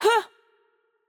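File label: Greek, attack light; VO; el GR; bloom minigame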